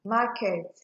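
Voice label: "maket", Nigerian Pidgin, female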